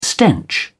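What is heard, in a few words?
"stench", British English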